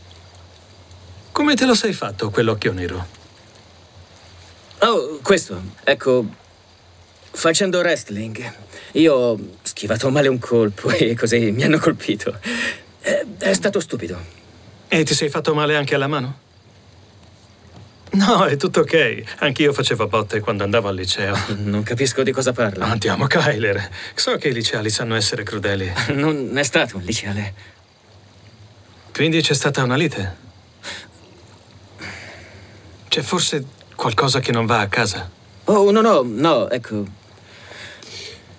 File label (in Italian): doppiaggio; kobra kai